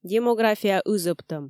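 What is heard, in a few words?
"демография ызӧбтӧм", Komi, female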